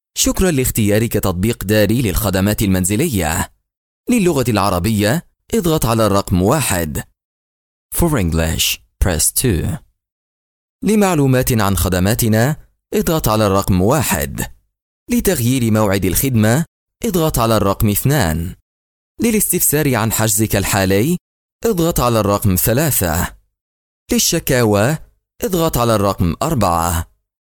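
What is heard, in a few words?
Arabic Voiceover Sample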